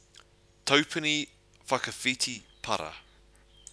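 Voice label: "taupuni whakawhiti para", Māori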